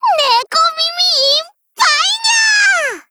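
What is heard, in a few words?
Japanese; IDW Mod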